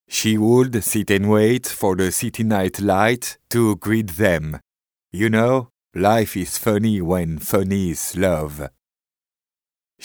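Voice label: French France, male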